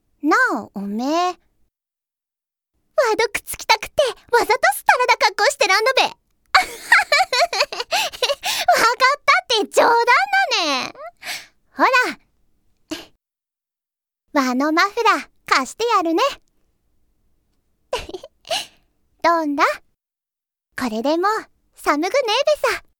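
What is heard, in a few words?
Aomori dialect, Japanese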